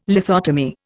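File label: "lithotomy", Indian English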